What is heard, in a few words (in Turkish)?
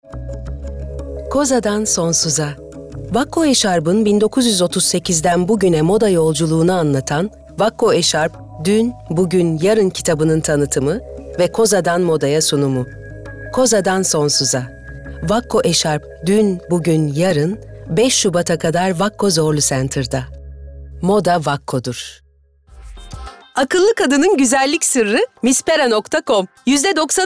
Reklam